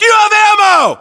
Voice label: status check, us

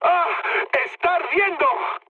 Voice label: on fire, mood high, speech; War Thunder

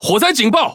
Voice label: Speech Alarms Fire Alarm; World Of Warships; ZH TW

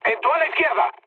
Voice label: left wing, mood high, speech; War Thunder

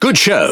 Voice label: Speech Quick Commands Good Game, EN GB; World Of Warships

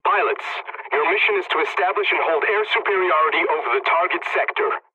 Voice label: mission start, english; War Thunder

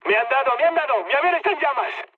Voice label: on fire, mood med, speech; War Thunder

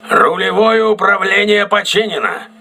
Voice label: rudder repaired, crew ships russian

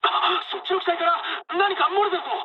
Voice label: tank damaged mood med, speech jp; War Thunder

